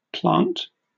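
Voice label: "plant", British English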